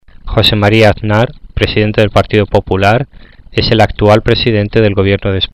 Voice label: Spanish